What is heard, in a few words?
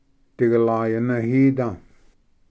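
"digalayanvhida", Cherokee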